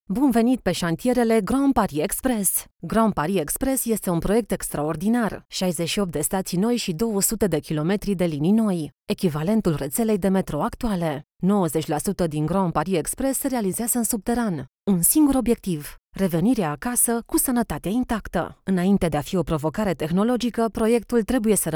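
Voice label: Romanian female eLearning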